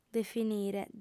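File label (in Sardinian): "definire", female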